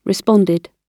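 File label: "responded", British English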